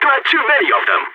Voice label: radio need backup, balkan; vo